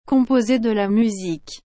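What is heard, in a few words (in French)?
"composer de la musique", French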